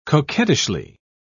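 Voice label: "coquettishly", British English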